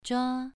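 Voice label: "zhua2", Chinese pronunciation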